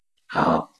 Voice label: Voice Acting, es